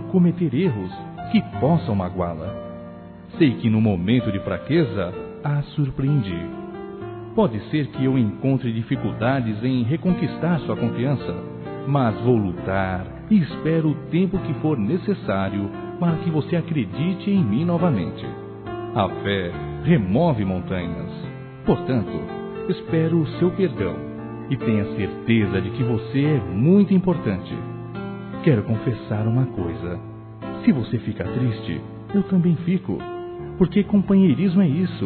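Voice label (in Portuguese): EU ERREI masculino, Telemensagens; Desculpas; Telemensagens 2017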